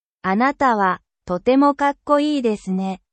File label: japan